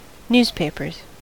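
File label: "newspapers", US English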